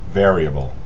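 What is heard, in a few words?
"variable", US English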